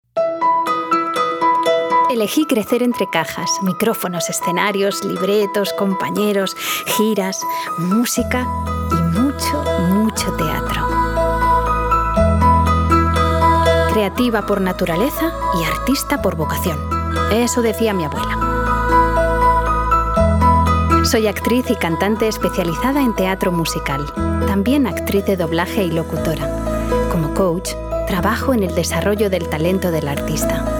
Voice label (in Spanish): Locucion web